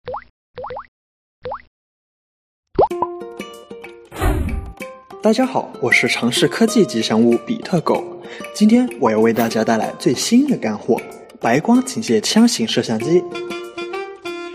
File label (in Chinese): 动画吉祥物产品中文配音